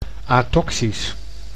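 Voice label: "atoxisch", Dutch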